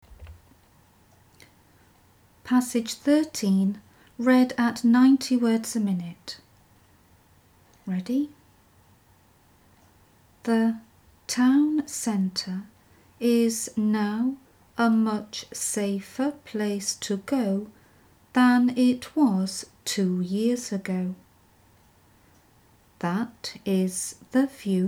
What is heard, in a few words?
90wpm; Teeline